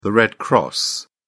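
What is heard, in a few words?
"red cross", British English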